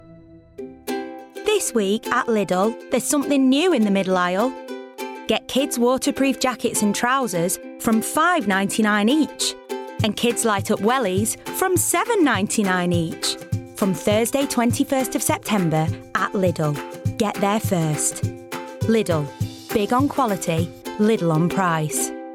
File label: commercials